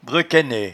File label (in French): "brequennaie", patois jura